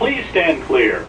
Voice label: subway please stand clear, Transit Terminal Announcements